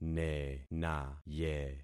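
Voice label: "nenaye", concatenated syllables; Affixing experiment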